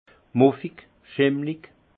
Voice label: "muffig", alsace; vin; moisi

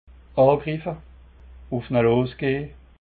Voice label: "angriffe", alsace

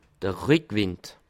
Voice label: "rick", alsace

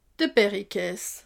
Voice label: "berrikaas", alsace

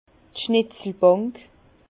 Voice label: "schnetzelbank", Bas Rhin; vin; chevalet du tonnelier

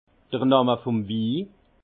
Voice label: "namme", alsace; vin; nom du vin

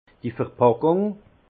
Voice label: "verpackung", alsace; vin; emballage

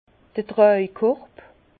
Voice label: "trajkorb", Bas Rhin; vin; hotte

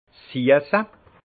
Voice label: "siesse", alsace; le sucré; vin

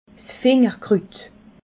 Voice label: "fingerkrut", alsace

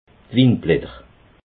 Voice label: "winblatter", alsace

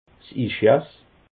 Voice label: "ischias", alsace

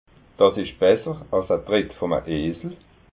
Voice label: "tritt", alsace

